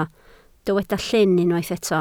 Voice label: "llyn" Welsh

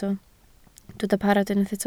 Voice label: "parod" Welsh

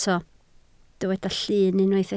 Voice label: "llun" Welsh

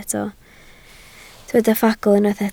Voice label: "ffagl", Welsh

